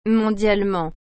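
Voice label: "mondialement", French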